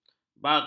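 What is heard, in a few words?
"baghal", Malay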